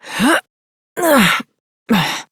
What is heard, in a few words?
Horse Mount Adult, Dialog; Duviri